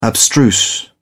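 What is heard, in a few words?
"abstruse", English, British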